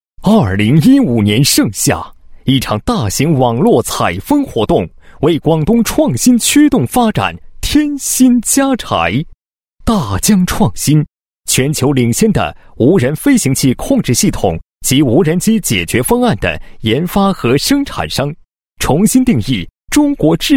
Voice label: 男 解说; 粤创粤新 创新驱动发展主题大型网络采风活动

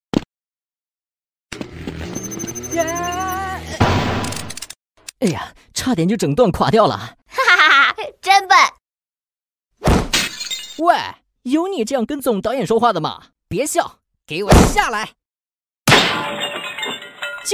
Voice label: 男 广告; 乐事火星情报局

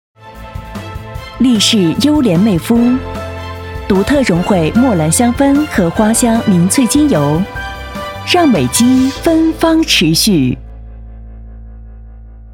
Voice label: 女 品牌广告 沐浴露; 力士

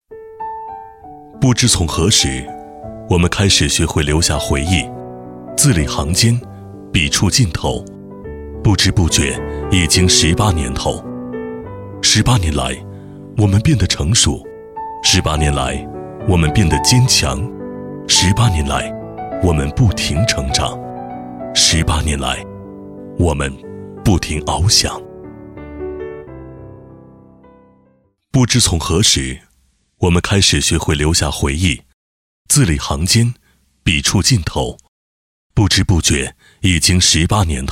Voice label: 男60号 记录片; 国语男声配音; 交广主播; 十八年

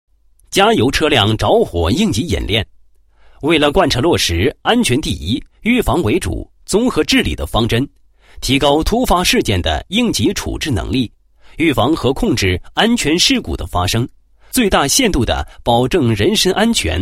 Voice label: 男 解说词; 加油车辆着火应急演练

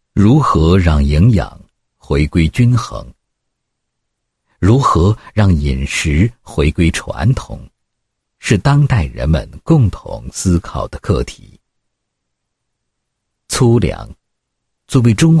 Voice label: 男 产品介绍 粗粮食品厂商产品宣传

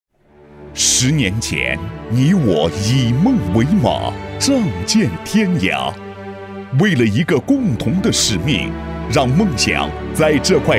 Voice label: 男 专题 开场短片 大气抒情; 十年前

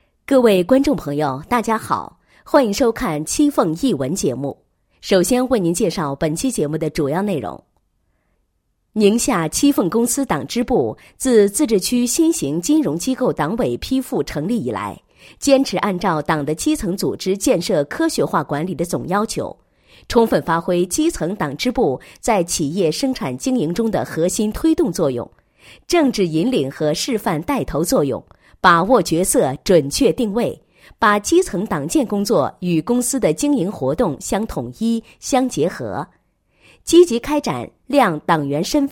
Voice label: 女 企业 宣传介绍; 宁夏栖凤公司

